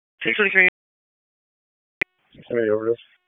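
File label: Police Dispatch, p25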